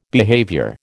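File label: "behaviour", American English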